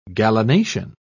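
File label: "gallinacean", British English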